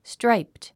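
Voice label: "striped", English word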